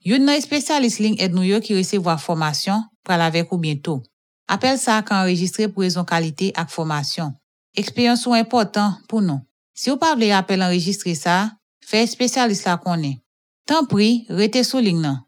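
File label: Haitian telephony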